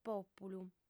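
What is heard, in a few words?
"pòpulu", female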